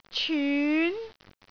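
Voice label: "cyun2", Cantonese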